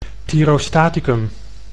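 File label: "thyrostaticum", Dutch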